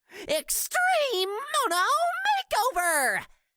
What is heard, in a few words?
voice prologue; monomeow